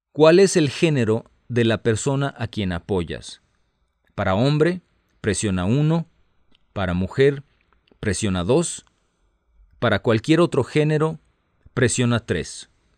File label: Spanish, hotline